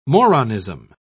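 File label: "moronism", British English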